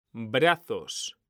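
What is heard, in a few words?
"brazos", Spanish, male; mekanta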